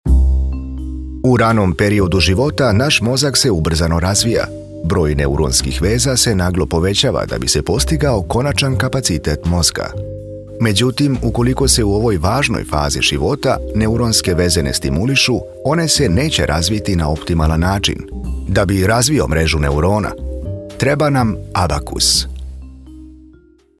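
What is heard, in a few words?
authoritative trustworthy narrator voice over serbian explanatory video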